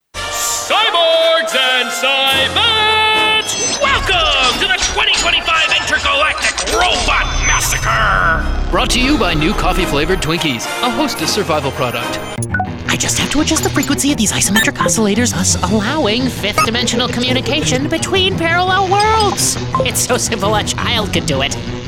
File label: Animation, voice acting; Animation Demo 2022